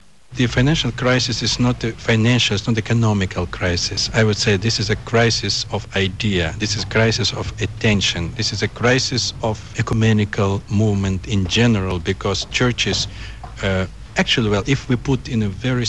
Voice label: ESL (Russian)